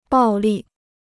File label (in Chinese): "暴利", Chinese